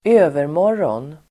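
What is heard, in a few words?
"övermorgon", Swedish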